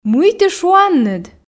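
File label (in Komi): мый ті шуанныд, Komi, female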